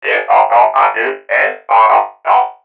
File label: murmur